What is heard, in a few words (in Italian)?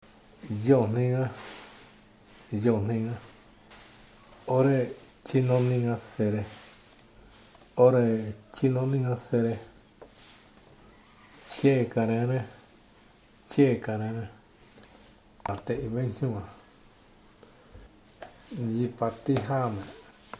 Ayoreo, liste di parole